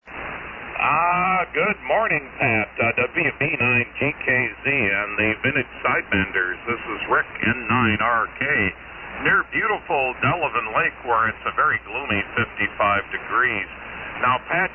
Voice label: Hallicrafters HT-44 SX-117; Delavan,WI.